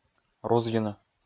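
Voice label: "рузйыны", Komi, male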